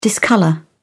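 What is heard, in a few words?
"discolour", English, British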